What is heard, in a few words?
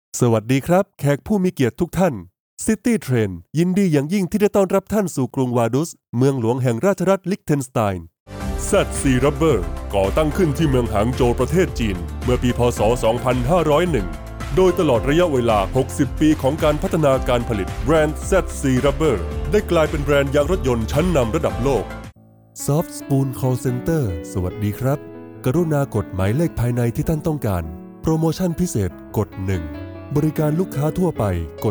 thai narration